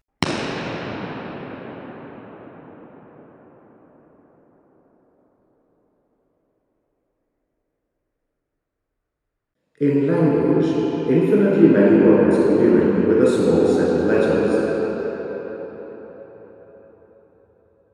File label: Male voice